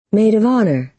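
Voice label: "maid of honour", American English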